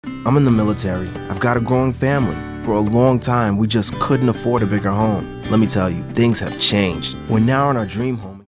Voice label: real youthful